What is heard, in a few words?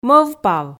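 "мӧвпав", Komi, female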